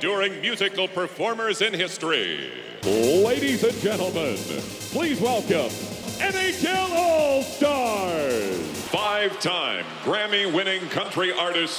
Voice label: PA ANNOUNCER